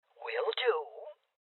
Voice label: Confirmation, Female Old; Rebel Cops

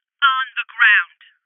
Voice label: Order To Surrender Female, Voices

